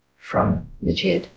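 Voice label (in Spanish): Voice Acting, es, female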